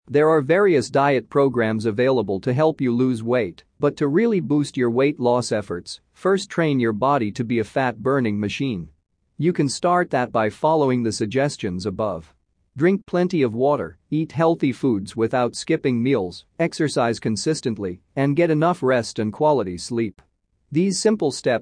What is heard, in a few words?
Male; Fat Burning